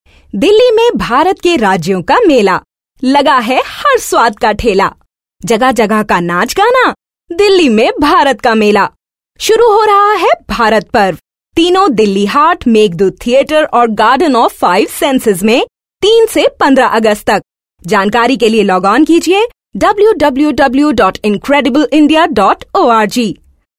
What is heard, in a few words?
Hindi, female voice